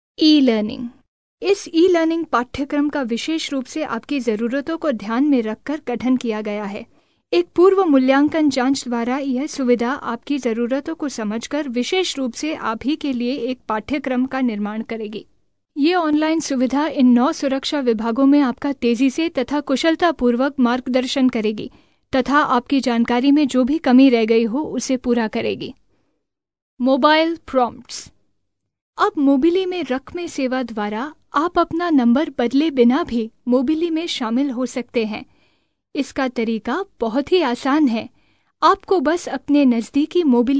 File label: Hindi Female